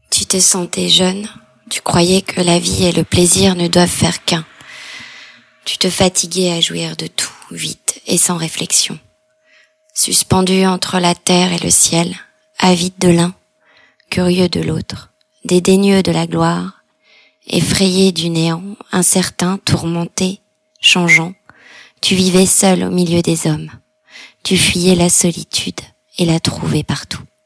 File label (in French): VOIX LECTURE; georgesand